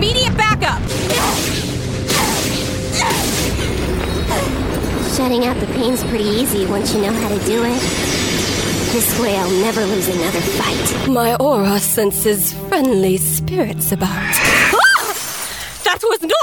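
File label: animation female character, Voice Over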